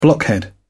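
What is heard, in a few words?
"blockhead", British English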